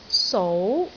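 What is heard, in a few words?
"sou2", Cantonese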